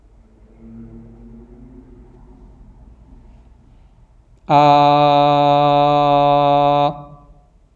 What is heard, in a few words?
Auditorium echo